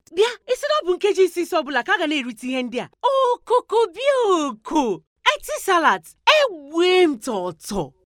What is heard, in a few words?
Igbo